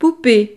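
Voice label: "poupee", French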